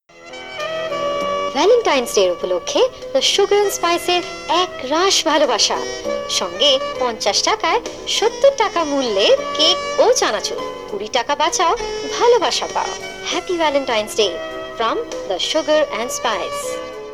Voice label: promo bengali